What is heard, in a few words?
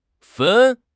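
"fen1", Mandarin Chinese; HSK3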